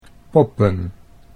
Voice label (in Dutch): "poppen", Dutch